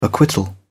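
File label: "acquittal", British English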